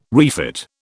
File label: "refit", British